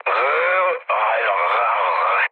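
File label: Hungarian damaged pilot mood high, speech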